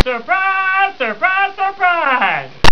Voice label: SURPRISE